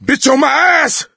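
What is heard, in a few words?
witch chasing, voice, male; Left 4 Dead 2; coach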